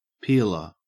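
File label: "peeler" English, Australian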